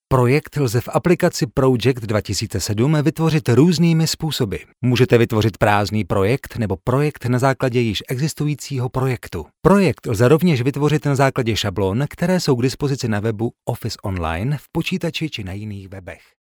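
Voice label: Czech, male, narration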